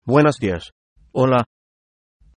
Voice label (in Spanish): hello, es